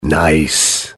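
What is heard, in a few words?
"nice shot", male; tf; max payne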